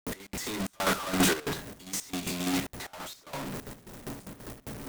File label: reconstructed audio